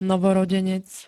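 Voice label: "novorodenec", Slovak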